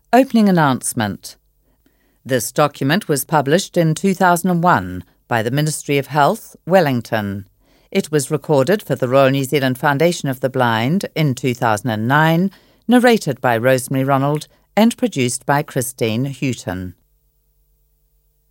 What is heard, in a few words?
Opening announcement